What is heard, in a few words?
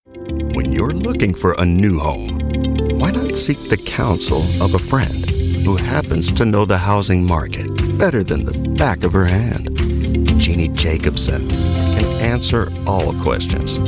English Voiceover